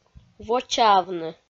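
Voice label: "вочаавны", Komi, female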